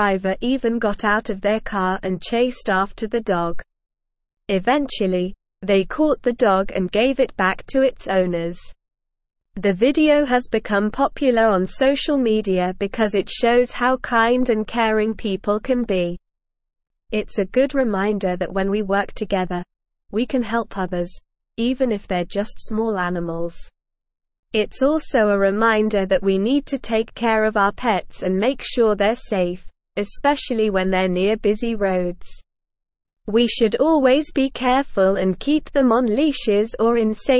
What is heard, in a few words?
English Upper Intermediate SLOW Reading; English Newsroom; Motorists Rescue Runaway Chihuahua on Staten Island